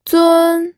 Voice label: "zun1", pinyin